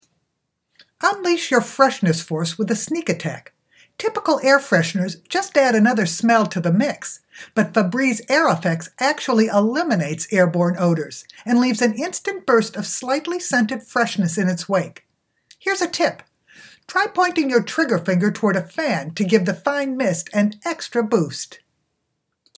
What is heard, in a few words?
Ad; voice-over